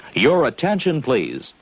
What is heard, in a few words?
your attention please, male; Voice; introductions